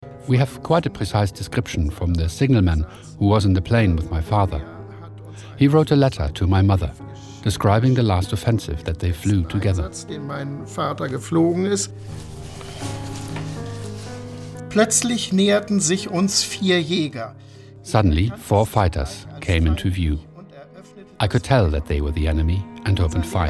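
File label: German English, male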